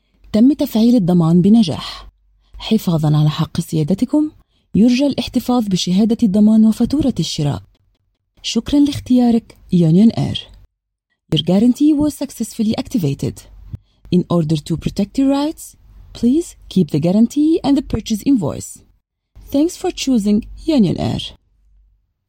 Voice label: IVR; UnionAir

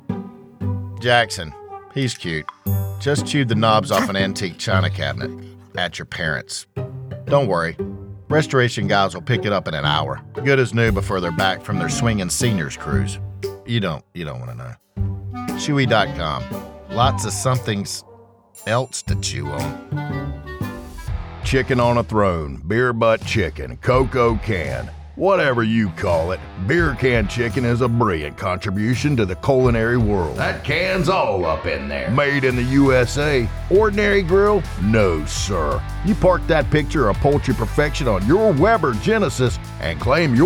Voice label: Commercial Music

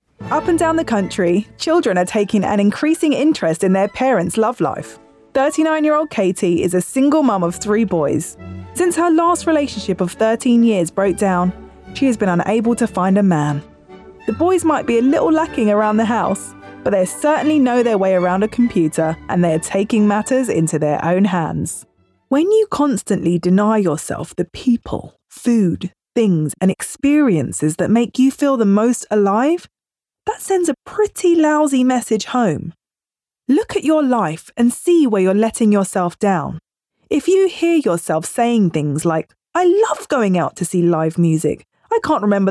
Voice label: Narrative

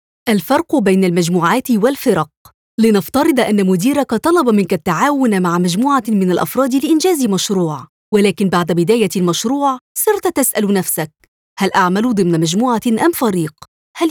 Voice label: E-learning VO reel

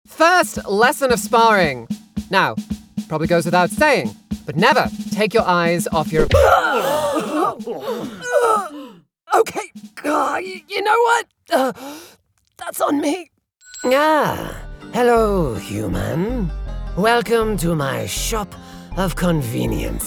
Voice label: Character

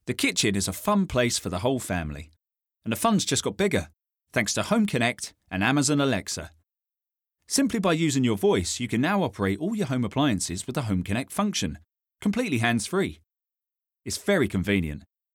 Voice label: Essex Amazon Alexa

